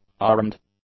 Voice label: "armed", English; adjectives

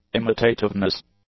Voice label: "imitativeness", English; nouns

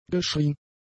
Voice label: "geschrien", German; adjectives

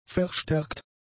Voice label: "verstärkt", German; adjectives

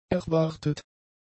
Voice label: "erwartet", German; adjectives

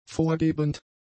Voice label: "vorgebend", German; adjectives

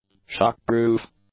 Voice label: "shock-proof", English; adjectives

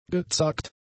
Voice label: "gezagt", German; adjectives

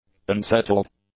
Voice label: "unsettled", english; adjectives

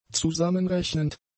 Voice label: "zusammenrechnend", German; adjectives

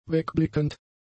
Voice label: "wegblickend", German; adjectives

